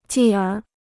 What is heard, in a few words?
"继而", Chinese